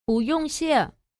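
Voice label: "bu yong xie", Chinese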